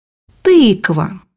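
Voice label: "pumpkin", Russian; bukvar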